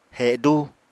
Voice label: "hedú", apatani

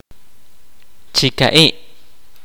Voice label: kaqchikel; both

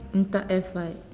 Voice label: "Burn", Naasioi

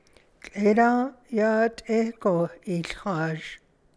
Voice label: Last night I slept really well, Navajo

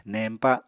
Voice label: "neñpa", Apatani

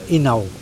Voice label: canoe connecting fibers, Mokilese

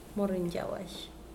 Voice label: "afternoon", Mokilese

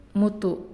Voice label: "Rain", Naasioi

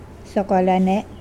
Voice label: Mokilese ankle

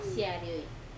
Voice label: "arioi", Wamesa

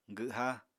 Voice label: "gwha", Apatani

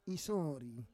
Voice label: "isori", Roon

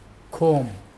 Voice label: comb, Mokilese

